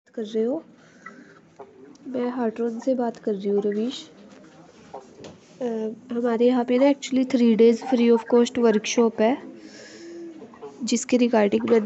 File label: call recording